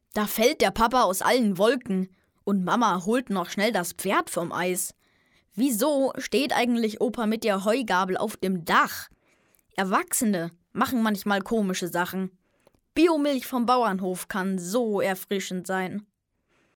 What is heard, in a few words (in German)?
jungen; Biomilch